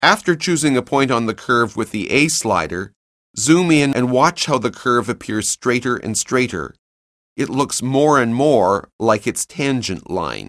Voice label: narration; CALCULUS 7E; cengage